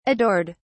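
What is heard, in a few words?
"adored", British English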